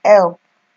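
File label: "help", Nigerian Pidgin, female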